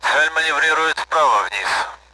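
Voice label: Speech Sound Russian Common AWACS; target is maneuvering right-down; DCS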